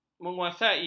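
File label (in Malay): "menguasai", Malay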